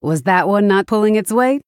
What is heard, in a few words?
Female Human After Selling